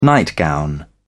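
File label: "nightgown", English, British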